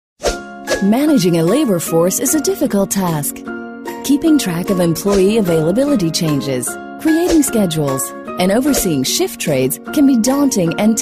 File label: corporate